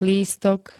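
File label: "lístok", Slovak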